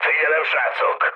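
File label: Hungarian near waypoint mood med, speech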